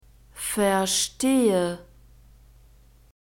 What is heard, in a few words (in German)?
"verstehe", German